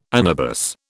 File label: "anabas", British